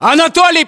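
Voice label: "anatoly", battlechatter, Russian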